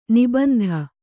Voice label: "ನಿಬಂಧಿ", kannada

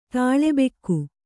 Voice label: "ತಿಳಿಬಗೆ", Kannada

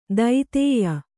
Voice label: "ದೈತೇಯ", Kannada

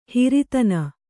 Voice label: "ಹೆರತನ", Kannada

